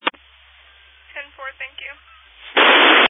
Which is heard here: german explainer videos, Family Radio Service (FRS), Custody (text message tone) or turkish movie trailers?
Family Radio Service (FRS)